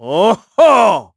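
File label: Vox Happy, Korean; KaselB; kingsraid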